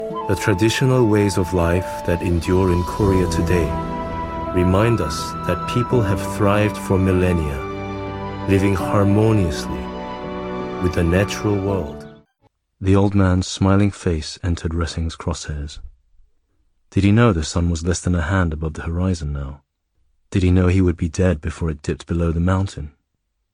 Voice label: English; Male, 40s-50s

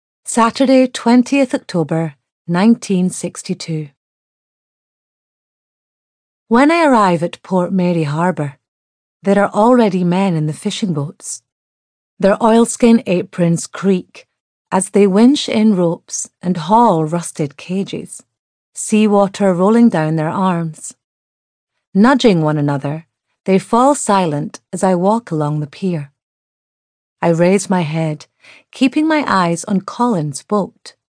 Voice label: Audiobook, Female, Scottish

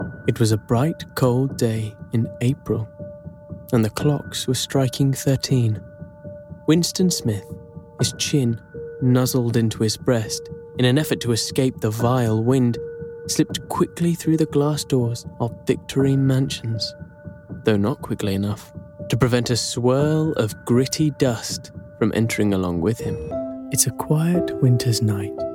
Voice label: Narration

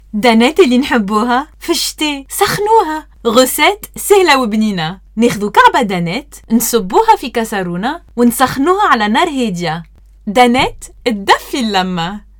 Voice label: ARABIC

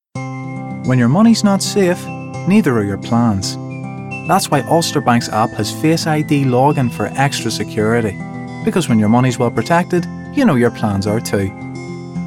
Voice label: Commercial; 20s-30s. Male. Northern Irish.; Commercials

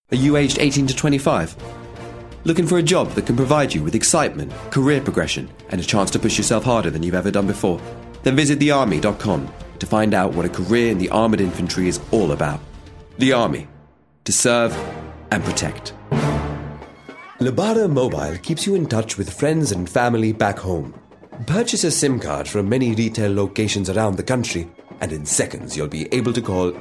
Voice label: Commercials

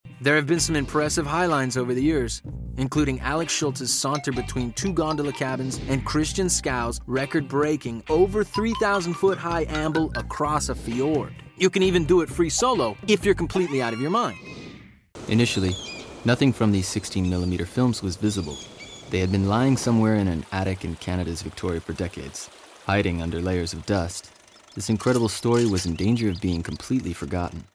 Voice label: Narration

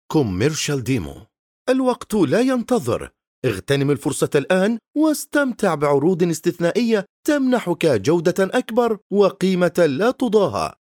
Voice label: Arabic

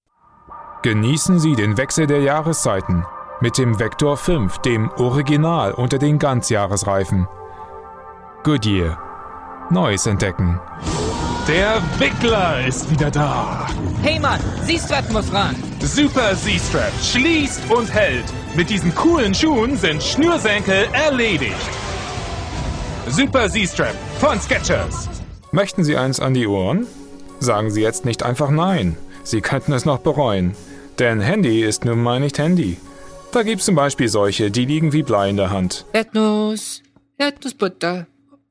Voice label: German Voice overs

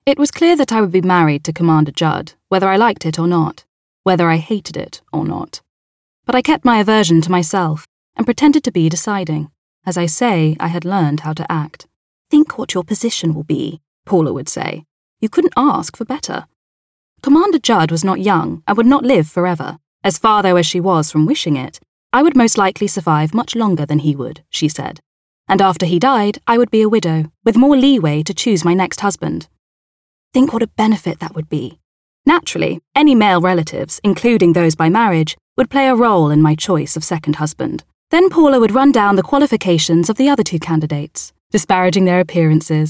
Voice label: Audiobooks

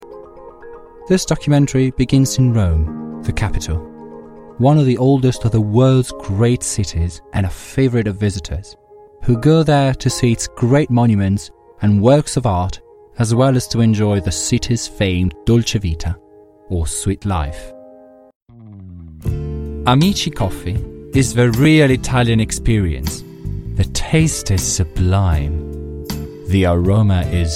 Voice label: English; Voicereel

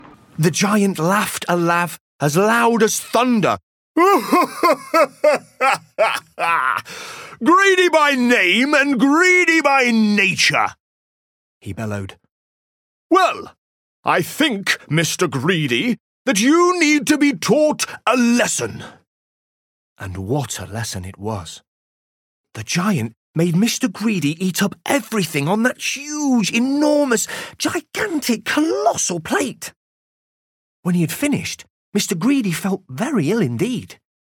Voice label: Audiobook, 20s-40s. Male. Nottingham

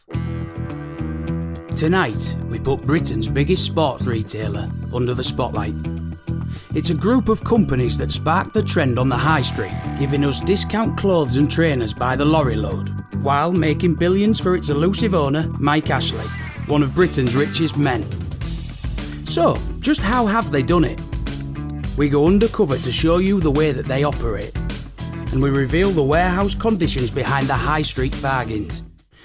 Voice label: Narration; 20s-30s. Male. Studio. Yorkshire.

